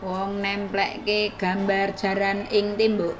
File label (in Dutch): indonesisch javaans fragment